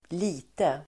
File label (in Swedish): "lite", Swedish